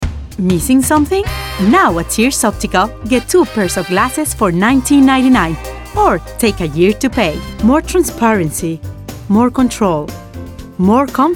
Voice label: English

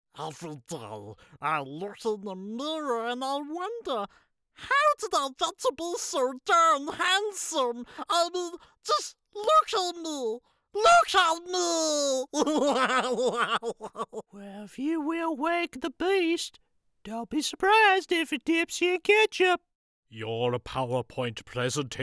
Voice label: Various Voices

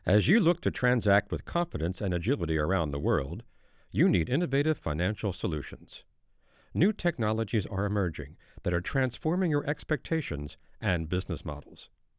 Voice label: Home Studio Dry Sample

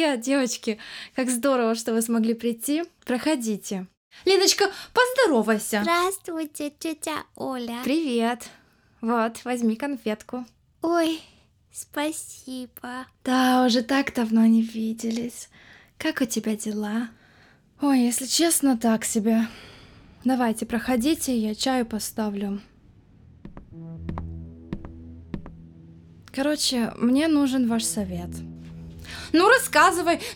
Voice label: Dramatic Reel Russian

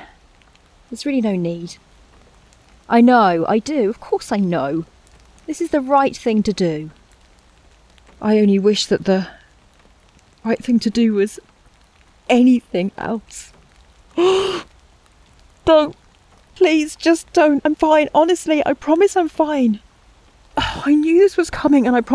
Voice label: Drama Reel

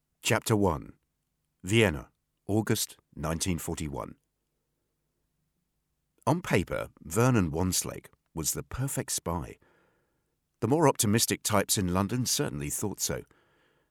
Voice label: Book sample; Vienna Spies

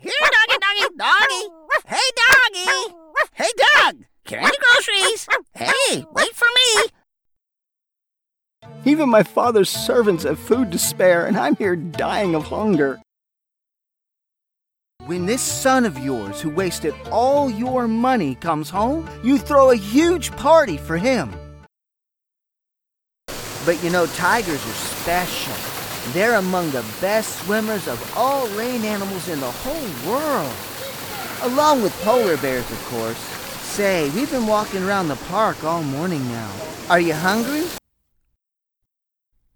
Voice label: Character

